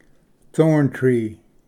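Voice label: "thorn tree", English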